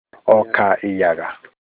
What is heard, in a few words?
millet, Olukumi